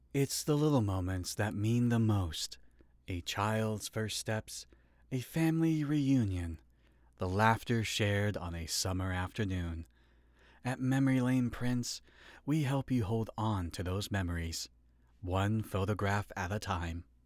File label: Commercial Warm